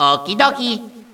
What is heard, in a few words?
okey dokey, Echo inside caves, underground; DS; ML BOWSERS INSIDE; Luigi